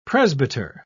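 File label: "presbyter", British English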